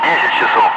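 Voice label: Russian speech, Ka-50 Wingman; DCS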